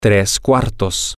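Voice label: medium well, Spanish, male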